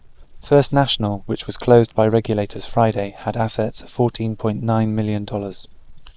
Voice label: Reverb far small